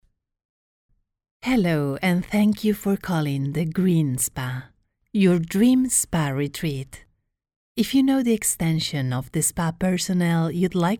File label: IVR English calm